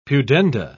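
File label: "pudenda", British English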